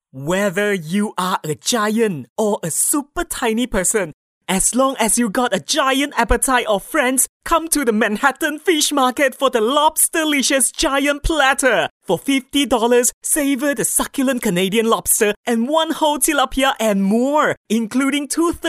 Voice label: English - Generic, Announcer, Malaysian Accent